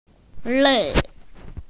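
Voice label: "lei4", Mandarin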